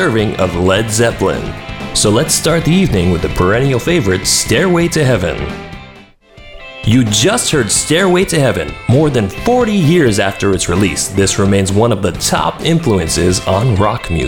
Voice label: Radio FM English